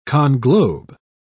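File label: "conglobe", British English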